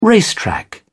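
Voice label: "racetrack", British English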